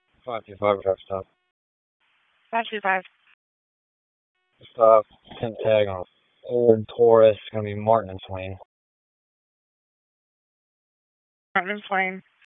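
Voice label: Police Southeast District Dispatch; Raleigh; wake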